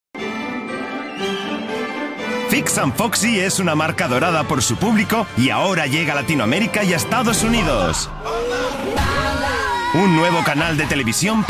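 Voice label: Spanish Spain, Commercial; GoLocalise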